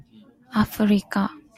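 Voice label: "Africa", Japanese, female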